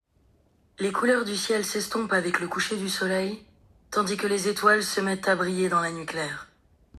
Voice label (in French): Siri Femme; iPhone; féminine